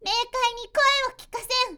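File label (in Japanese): magi girl meikainikoewokikasen, Japanese; magi syozyo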